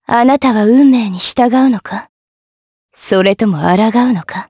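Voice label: vox dialogue, voices, Japanese; Lewsia; kings raid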